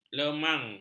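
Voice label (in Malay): "lemang", Malay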